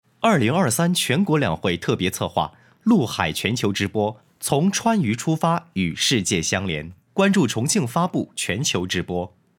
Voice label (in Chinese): 预告